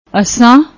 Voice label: "assan", Punjabi; ਅਸਾਂ